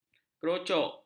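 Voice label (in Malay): "kerocok", Malay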